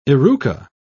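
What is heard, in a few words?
"eruca", British English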